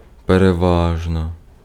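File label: Ukrainian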